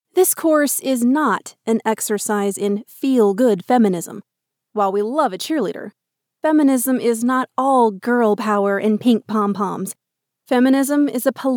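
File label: US English Elearning; GoLocalise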